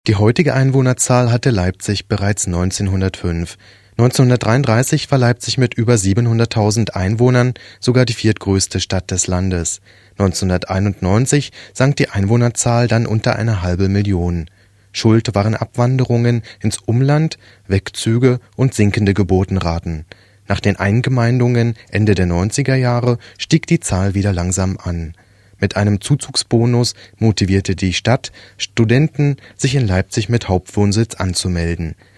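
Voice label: Male German IVR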